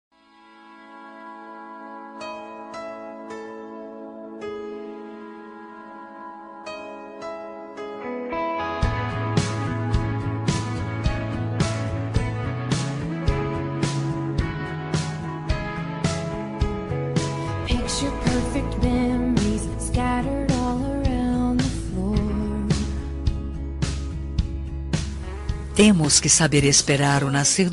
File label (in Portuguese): Telemensagem Aniversário de Pessoa Especial, Voz Feminina; neutro fem